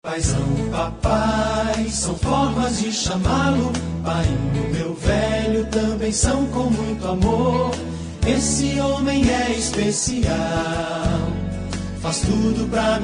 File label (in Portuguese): voz infantil pai fem, Aniversário Voz Infantil Pai – Voz Feminina; Telemensagens